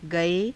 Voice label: "gae", Hindi

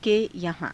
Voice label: ke yahaa~, Hindi

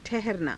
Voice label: "thaharanaa", hindi

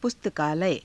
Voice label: "pustakaalaya", Hindi

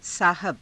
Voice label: "saahaba", hindi

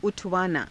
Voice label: "u thavaanaa", hindi

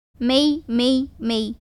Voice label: Tamil

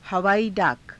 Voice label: "havaaii daaka", Hindi

